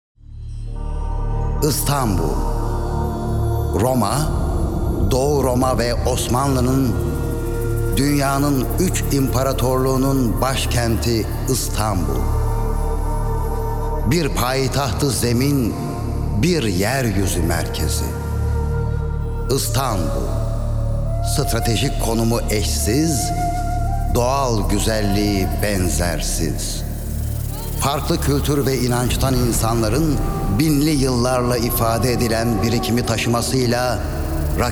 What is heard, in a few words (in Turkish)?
belgesel tarihi; seslendirme